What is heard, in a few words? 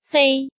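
"Fēi", Chinese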